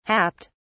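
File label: "happed", British English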